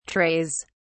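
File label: "trays", British English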